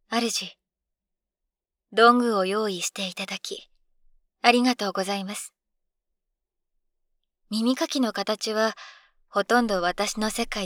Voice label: 声のみ, ASMR; 効果音なしver

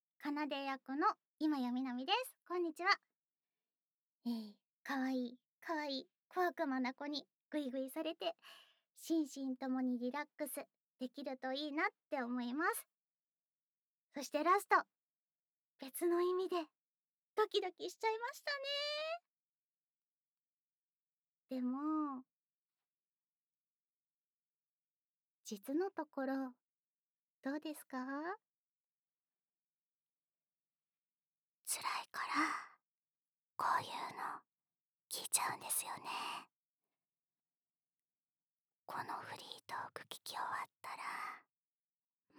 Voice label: フリートーク

